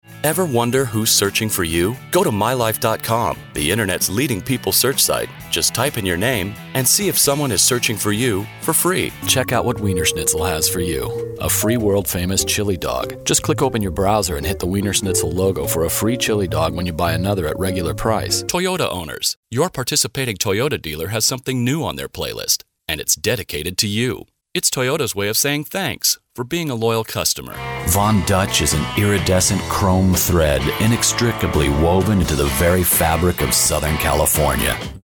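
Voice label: US, commercial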